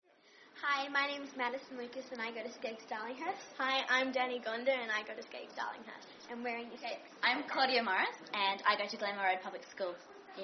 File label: Interview With Girls About Clapping Rhymes